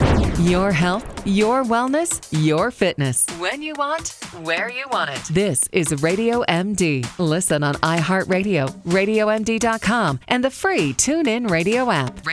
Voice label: Promo; RadioMD Production